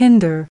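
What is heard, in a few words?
"Hinder", American English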